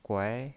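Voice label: "guai", Mandarin Chinese, second tone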